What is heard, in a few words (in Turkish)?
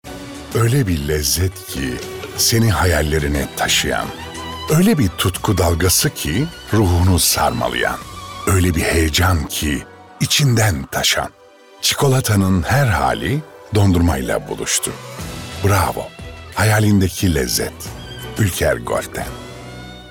Romantik